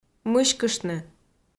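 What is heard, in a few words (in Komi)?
"мыськыштны", Komi, female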